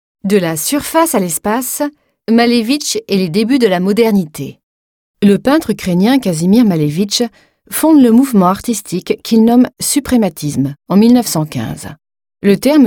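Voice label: French audioguide; sprecher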